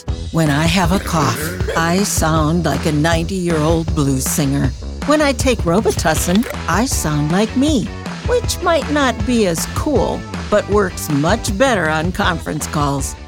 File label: commercial; Vibrant Voices; robitussin